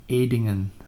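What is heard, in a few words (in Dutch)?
"Edingen", Dutch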